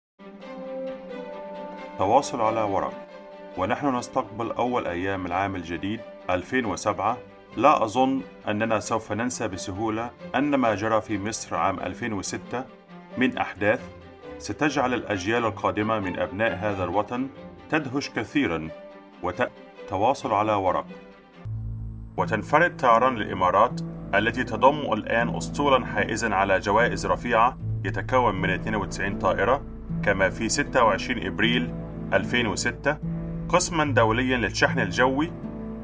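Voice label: Arabic, General; GoLocalise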